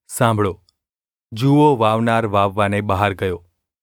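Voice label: gu ulb mrk, Gujarati; mrk 4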